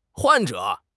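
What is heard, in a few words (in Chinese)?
"患者", Chinese; HSK6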